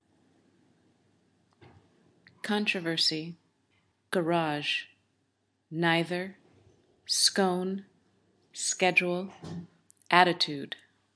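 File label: los angeles california usa female, accent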